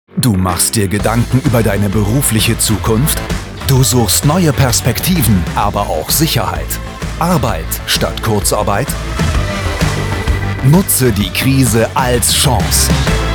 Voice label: werbung Krise Als Chance; DVAG

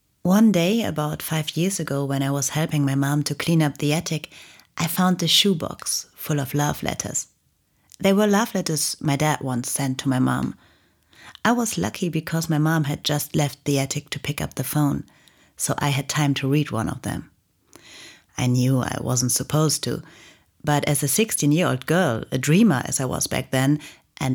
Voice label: englisch

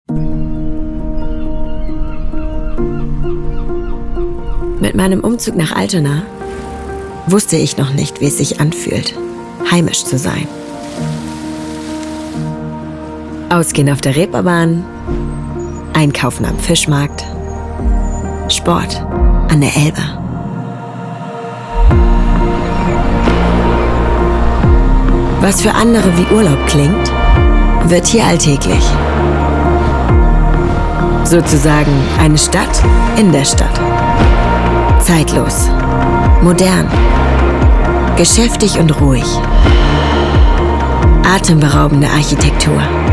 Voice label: Imagefilm; Sparkasse Altona; LOFT VOICES

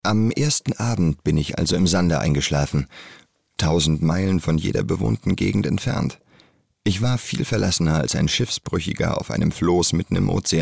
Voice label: erzaehlung

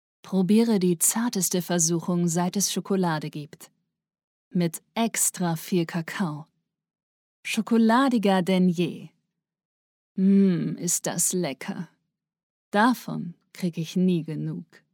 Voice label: Stimmprobe Werbung; Schokolade

